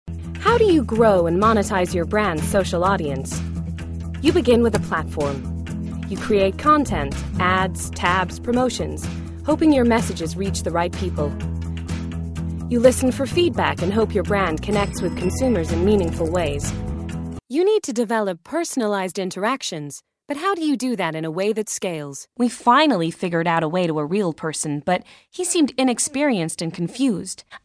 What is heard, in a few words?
Business USA; Business Reel